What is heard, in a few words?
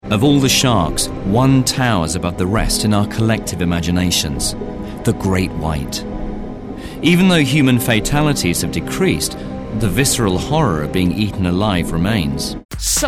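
British English, narration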